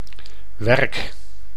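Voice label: "werk", Dutch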